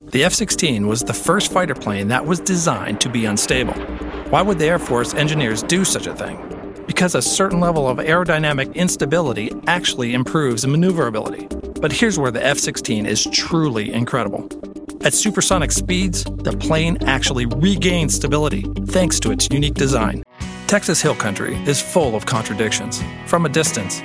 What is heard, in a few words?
Narrations